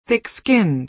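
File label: "thick-skinned", American English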